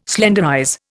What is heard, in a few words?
"slenderize", Indian